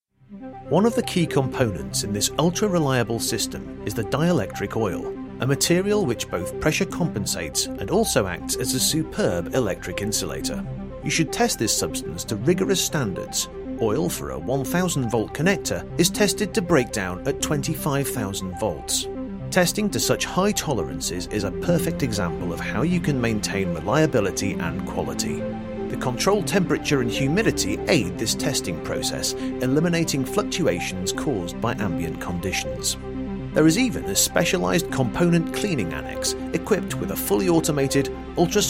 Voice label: corporate